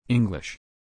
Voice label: English